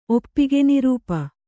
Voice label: "ಒಪ್ಪಿಗೆನಿರೂಪ", Kannada

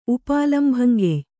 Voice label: "ಉಪಾಲಂಭಂಗೆಯ್", Kannada

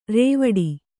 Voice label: "ರೂವಿಡು", Kannada

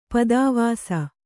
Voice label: "ಪದಾವಾಸ", Kannada; padāvāsa; noun

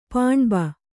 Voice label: "ಪಾಣ್ಬ", Kannada

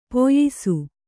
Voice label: "ಪಯಸ್ಸು", Kannada

